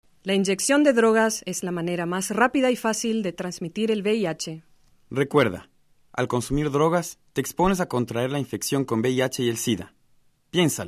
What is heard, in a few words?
spot spanish